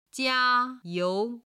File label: "jia1you2", Chinese